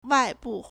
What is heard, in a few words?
"wai4bu4", Chinese